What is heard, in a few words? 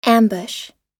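"ambush" US English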